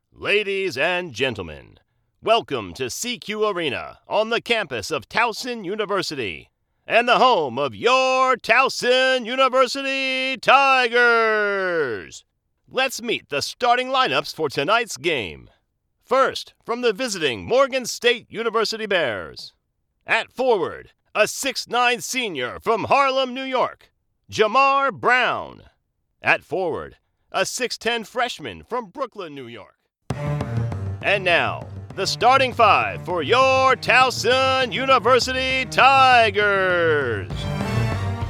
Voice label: Live Announce